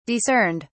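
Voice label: "discerned", British English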